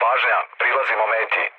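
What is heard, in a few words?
Serbian near waypoint mood low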